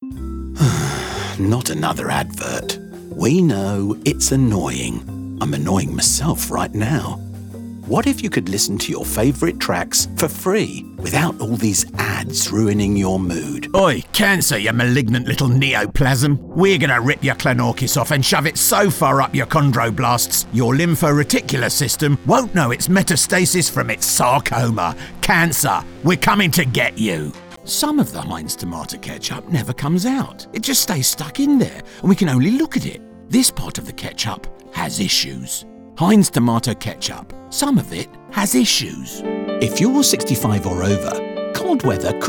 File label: commercial